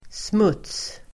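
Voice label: "smuts", Swedish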